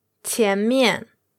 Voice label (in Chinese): "qian2 mian4", Chinese; qiánmiàn, in front; tones